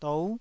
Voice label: "dou9", taiwanese syllables

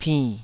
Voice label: "pinn3", taiwanese

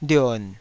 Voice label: "lion3", taiwanese syllable

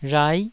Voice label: "rhai9", taiwanese

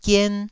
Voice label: "gien1", Taiwanese syllable

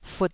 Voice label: "fut2", Taiwanese

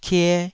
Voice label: "kie2", taiwanese syllable

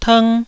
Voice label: "tng1", taiwanese Syllables

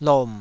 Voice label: "lom3", Taiwanese syllable

